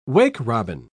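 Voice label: "wake-robin", British English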